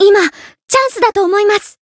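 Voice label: Voice Japanese; saki; limitbreak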